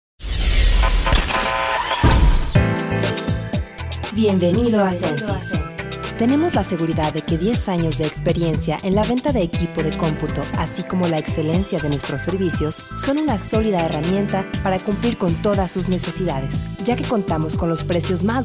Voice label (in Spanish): spot de Radio, Anuncios; Audio para web